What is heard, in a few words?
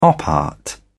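"op art", British English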